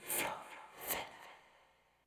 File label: "Floorfiller", vocal phrases; Ultimate Vocals